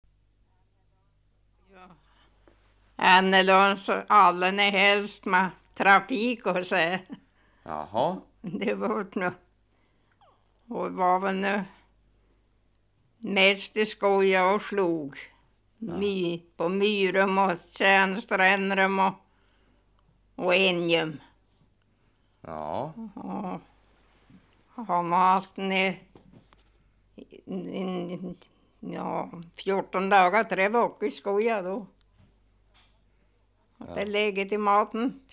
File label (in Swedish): Bodsjö, dialekter; Svenska sagor; Folkminnen